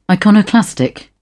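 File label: "iconoclastic", British English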